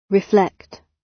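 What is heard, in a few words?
"reflect", British English; Design and innovation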